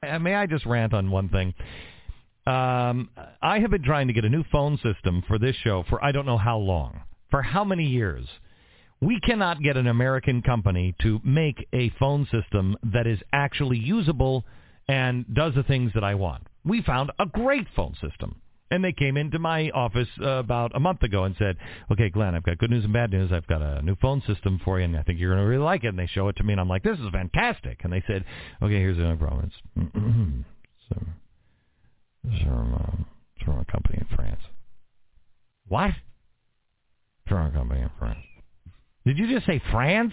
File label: Call EN G711; neosip